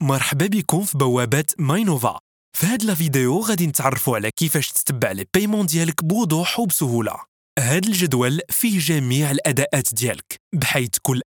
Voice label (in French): elearning